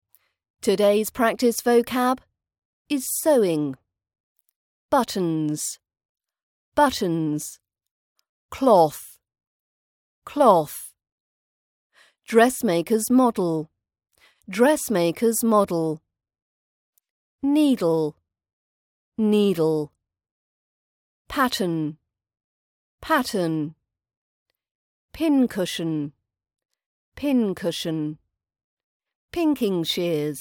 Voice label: british accent